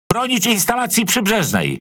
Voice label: Speech Quick Commands Ally Building, PL; World Of Warships

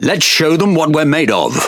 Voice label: Pirate Speech Quick Commands Good Luck; World Of Warships

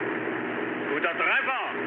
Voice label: bomb success, mood med, speech ge; War Thunder

